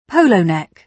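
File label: "polo neck", British English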